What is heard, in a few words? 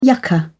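"yucca", British English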